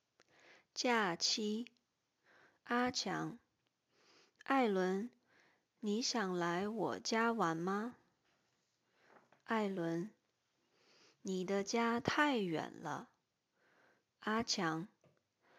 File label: conversation, mandarin; mandarin lesson materials